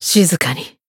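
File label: Voice finish, Japanese; clarte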